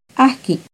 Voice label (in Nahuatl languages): "ahqui", Náhuatl; nadar; INALI; UTexas; NAHUATLAHTOLLI